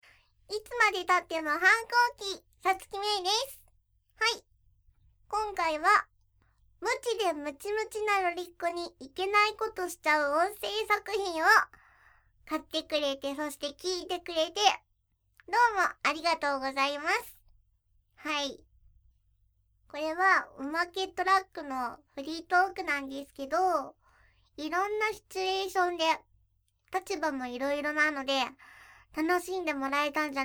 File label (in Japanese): フリートーク, ASMR